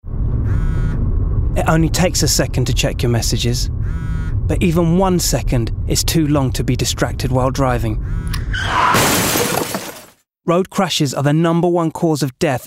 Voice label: Commercial Road Safety

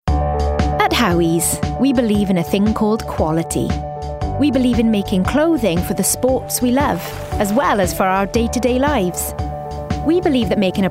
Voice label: COMMERCIAL

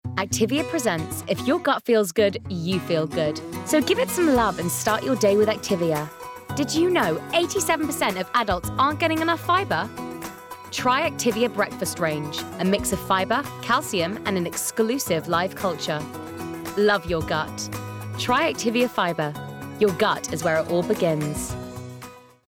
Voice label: Commercial Mix; Activia

